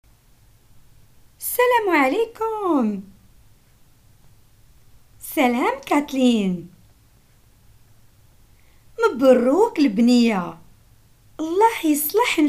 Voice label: dialogues, Moroccan Arabic; visit

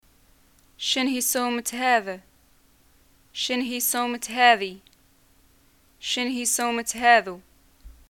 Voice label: shopping how much, arabic

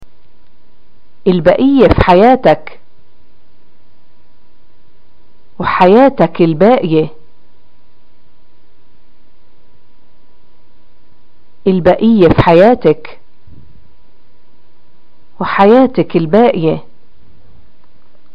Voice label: greeting death, arabic

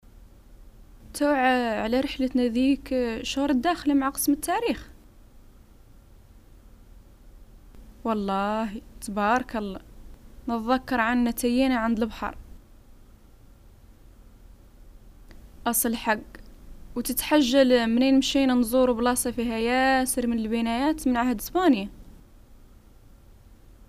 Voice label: dialogues, arabic, north african, hassaniya

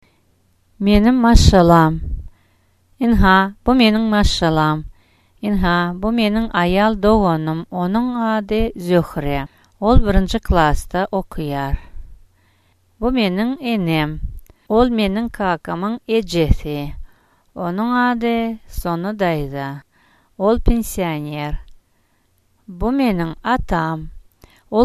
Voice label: dialogue, turkmen

